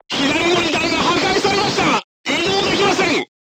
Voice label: voice message left track knocked down, crew tanks japanese